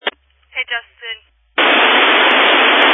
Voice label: Family Radio Service (FRS)